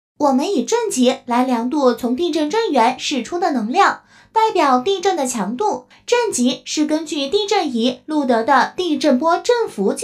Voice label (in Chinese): 女 科普解说 自然讲解; 机器人; MG飞碟说配音